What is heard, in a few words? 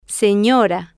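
"senora", Spanish, female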